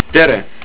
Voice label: Hello, estonian

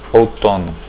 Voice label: autumn, portuguese

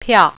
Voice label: "ticket", Mandarin

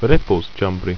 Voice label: "repozch", ido

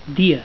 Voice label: "dia", Catalan

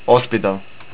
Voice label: Hospital, estonian

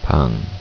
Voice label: room, korean

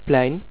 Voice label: SQUARE, dutch

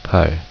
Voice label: "Pal", Korean

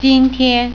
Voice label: "today", Mandarin

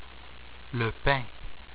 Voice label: "Le pain", French

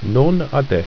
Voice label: "nonadek", ido

